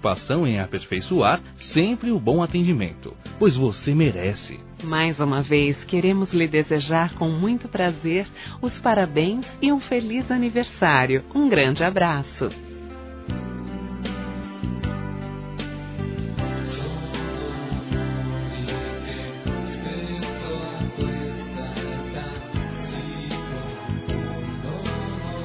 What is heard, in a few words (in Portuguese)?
CLIENTE AMIGA, Telemensagens Empresarial; fem; Telemensagens 2017